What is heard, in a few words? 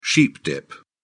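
"sheep dip", British English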